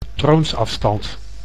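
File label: "troonsafstand", Dutch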